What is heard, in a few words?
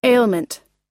"ailment", us; (通例軽いまたは慢性の)病気、不快